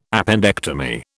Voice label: "appendectomy", British

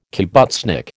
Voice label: "kibbutznik", American

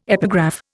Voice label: "epigraph", Indian

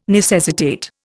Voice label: "necessitate", Indian

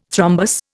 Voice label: "thrombus", Indian

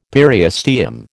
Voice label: "periosteum", American

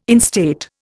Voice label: "instate", Indian

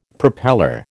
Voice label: "propeller", American

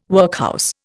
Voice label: "workhouse", Indian

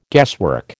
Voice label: "guesswork", American

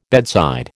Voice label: "bedside", American

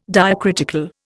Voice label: "diacritical", Indian

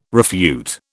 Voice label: "refute", British

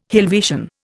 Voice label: "Helvetian", Indian

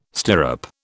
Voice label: "stirrup", British

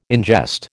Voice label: "ingest", American

